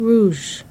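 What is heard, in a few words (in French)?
"rouge" French adjective, feminine singular; Colors and Adjectives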